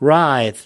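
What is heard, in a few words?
"writhe", US English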